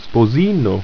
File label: "spozin", ido